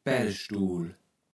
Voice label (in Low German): "Päddestuul", kaevels platt